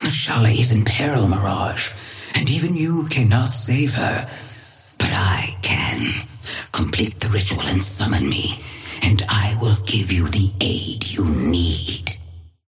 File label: female; Patron; mirage